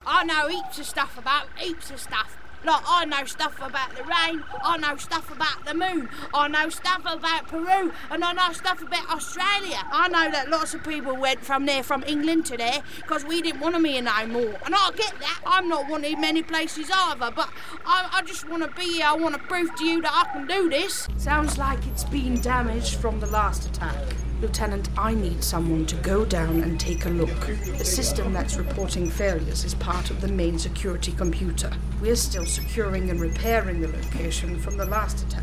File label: Voice Actor; BigMouthVoices